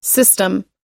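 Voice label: "system", English pronunciation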